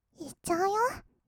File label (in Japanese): "いっちゃうよ", kawaii voice; ASMR; 色々なワンショット; 1200 kawaii voice!!